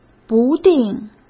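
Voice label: "不定", Mandarin Chinese; chinese-flashcards; hsk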